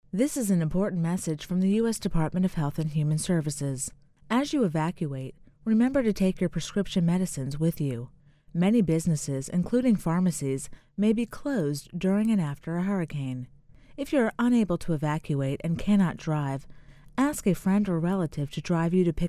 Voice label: psa hurricane prescription meds female voice